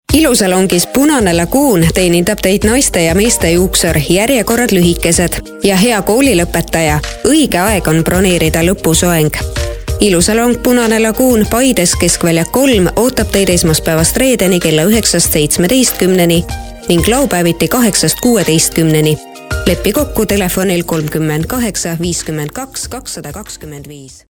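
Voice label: estonian female narration; voice demos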